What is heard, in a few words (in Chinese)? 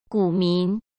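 "股民", Mandarin Chinese; chinese-flashcards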